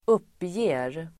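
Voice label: "uppger", Swedish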